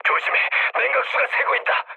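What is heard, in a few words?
Korean damaged water mood low; War Thunder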